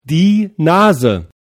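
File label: "Nase", German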